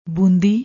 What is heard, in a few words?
"boondi", Punjabi